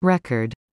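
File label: "record", English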